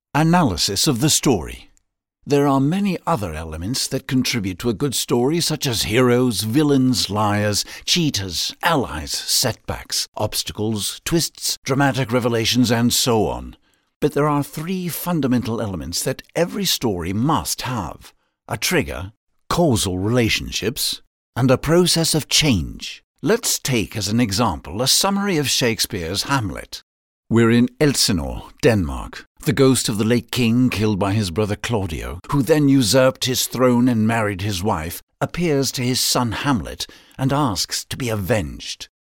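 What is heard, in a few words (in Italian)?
inglese uk, Demo Voci Spot Pubblicitari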